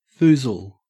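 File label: "foozle" Australian English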